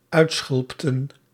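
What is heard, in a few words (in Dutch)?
"uitschulpten", Dutch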